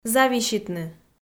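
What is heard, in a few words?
"зависитны", Komi, female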